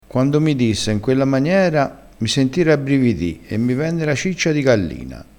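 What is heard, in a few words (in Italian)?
"ciccia di gallina", dialettale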